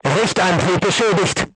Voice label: voice message vertical aiming drive damaged, crew tanks german